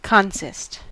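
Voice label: "consist", US English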